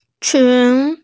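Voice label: "qun2", Mandarin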